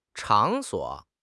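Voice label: "场所", Mandarin Chinese; HSK; HSK6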